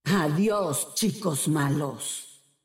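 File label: Latin American Spanish; 索杰恩（守望先锋2）; 终极技能; 主动/敌方释放语音; ¡Adiós, chicos malos!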